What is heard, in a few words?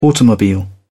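"automobile", English, British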